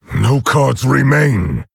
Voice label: Orc Emote No Cards; Nerzhul

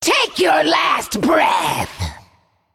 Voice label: Female Naga Attack

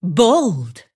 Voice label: Female Dragon Emote Wow

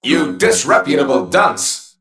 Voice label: Male Mech Attack

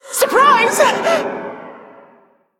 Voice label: Female Air Elemental Play

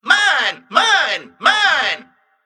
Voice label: Mech Emote Attack

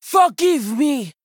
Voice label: Female Troll Attack

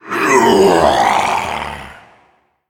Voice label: Male Voidwraith Death

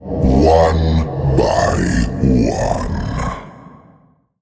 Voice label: Male Darkness Trigger

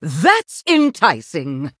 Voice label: Human Emote Wow

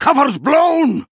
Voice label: Male Dwarf Attack

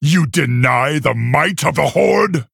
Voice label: Male Orc Threaten

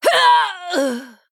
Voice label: Female Human Death

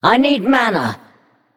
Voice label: Female Naga ERROR NEED MANA

